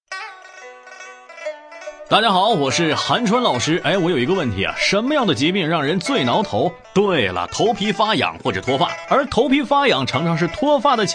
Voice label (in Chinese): 男 专题 轻松诙谐讲述、电台节目类